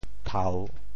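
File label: "thau3", Teochew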